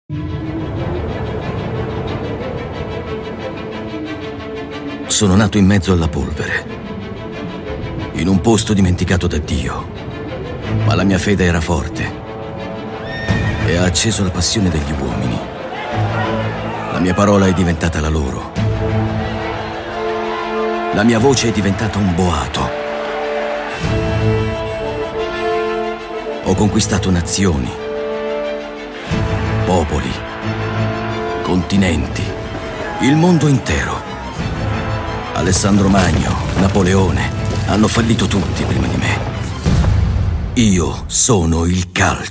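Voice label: Italian male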